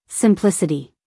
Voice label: "simplicity", US female; everyone-can-use-english